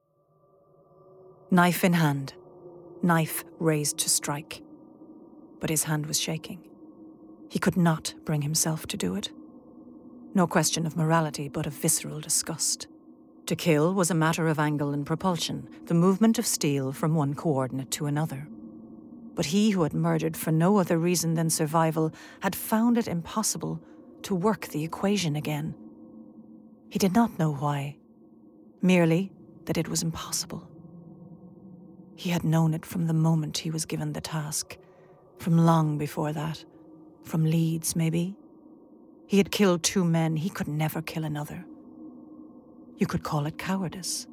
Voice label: Audiobook

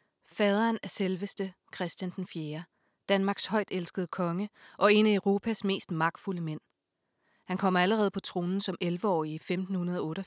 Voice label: Danish Audiobook

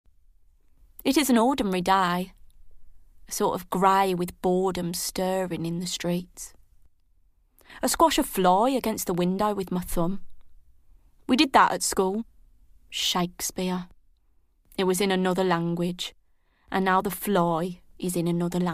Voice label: Accent Drama

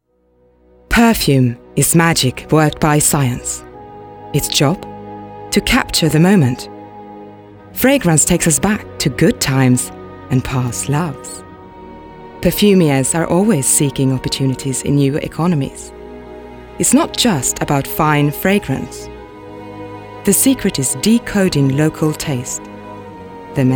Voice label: English Documentary

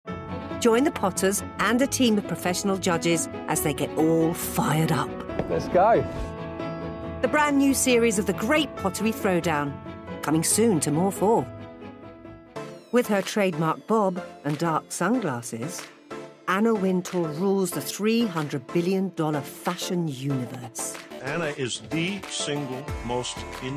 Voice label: Narrative

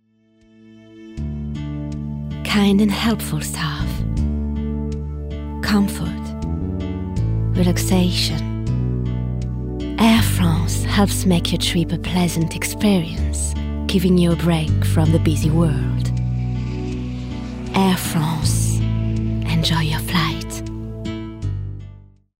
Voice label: English Commercial

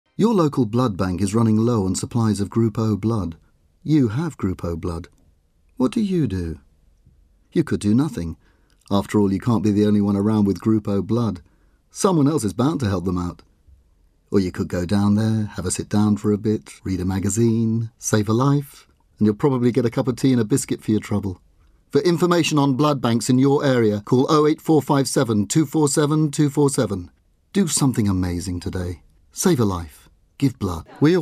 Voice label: Commercial

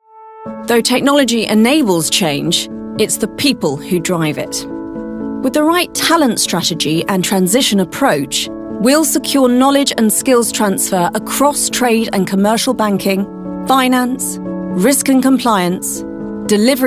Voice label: Corporate

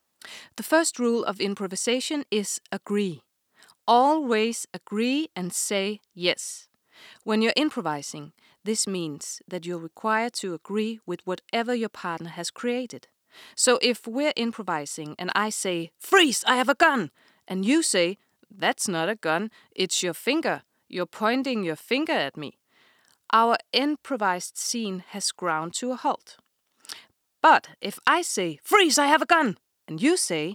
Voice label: English Audiobook Speak

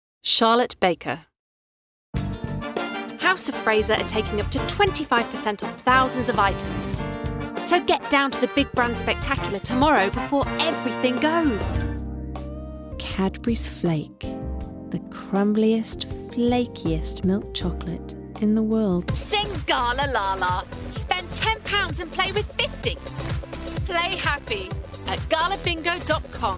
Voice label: Commercial Voice

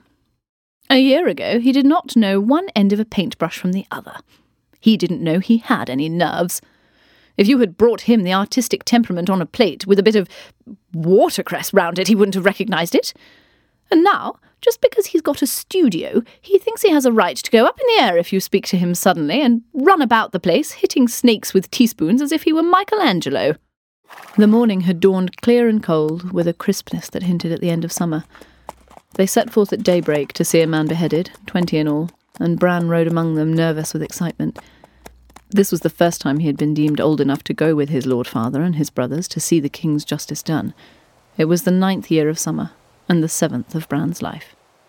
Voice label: Drama Reel

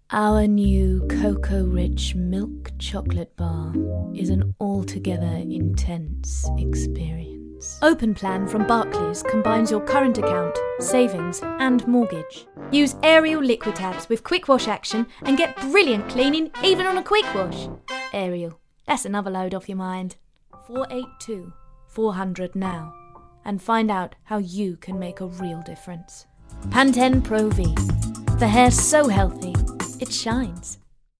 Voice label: Commercial

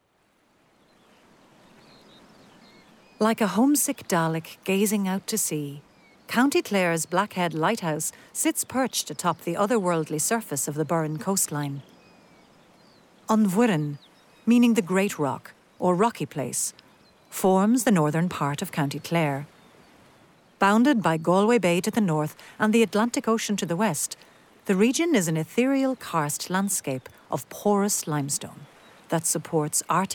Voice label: Documentary

